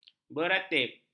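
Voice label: "beratib", Malay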